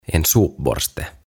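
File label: "En Sopborste", Swedish, "Lattiaharja", Finnish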